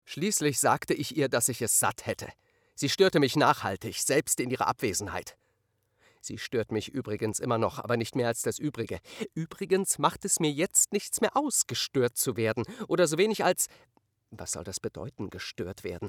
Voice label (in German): Sprecher Erste Liebe